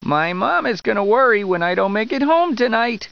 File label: mom; torchlight; hl1; otis